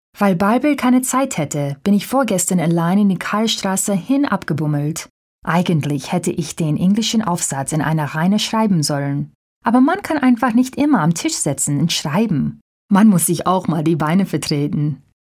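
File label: Duetsch voice over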